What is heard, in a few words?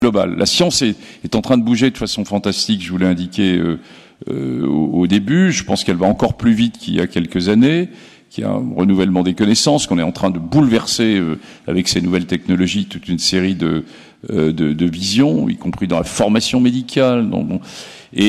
voice tape